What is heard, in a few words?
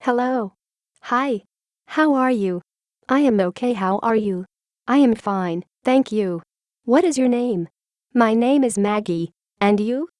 Conversation, english